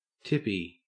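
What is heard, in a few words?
"tippy", Australian English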